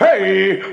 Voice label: "hey", male